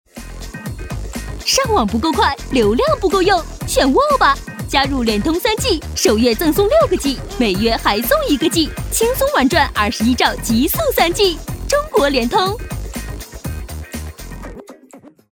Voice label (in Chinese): 女 广告 手机通信 欢快; 联通